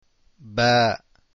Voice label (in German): Ba, Aussprache arabisch; ب (Ba)